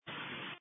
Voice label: Police Tactical Encrypted